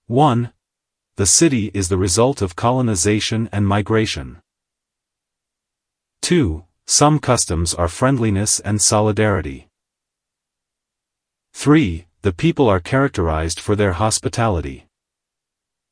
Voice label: dictation